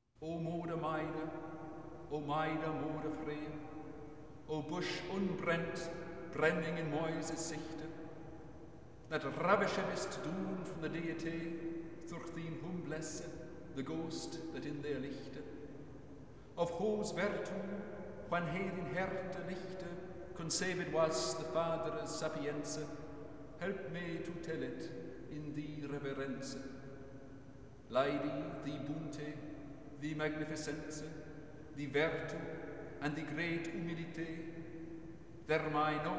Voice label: reading; o moder mayde; Boston Camerata